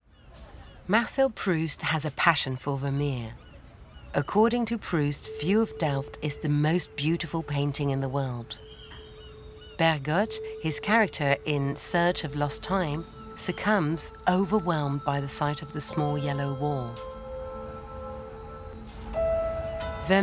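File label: English narration plus technique; Hopper; Vermeer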